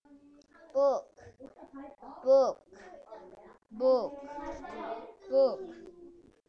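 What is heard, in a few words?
"book", spoken word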